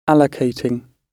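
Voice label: "allocating", British English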